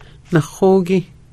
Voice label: naxóogi to paddle to row, Hidatsa

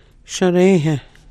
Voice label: shareehe to dip, Hidatsa

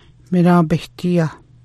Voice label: miráabihdia cabbage, Hidatsa

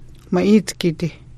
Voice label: scissors, Hidatsa; ma'iicgiidi

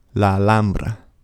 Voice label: "la alhambra", Spanish; pronunciation; phoneme a

